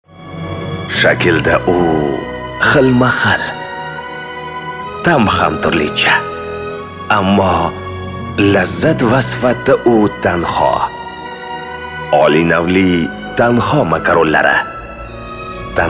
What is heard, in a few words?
Uzbek